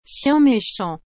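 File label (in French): "Chien méchant", French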